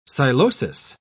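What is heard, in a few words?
"psilosis", British English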